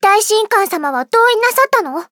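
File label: start, Japanese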